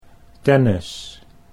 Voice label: "tennis", Dutch

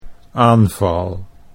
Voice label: "aanval", Dutch

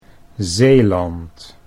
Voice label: "Zeeland", Dutch

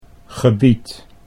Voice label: "gebied", Dutch

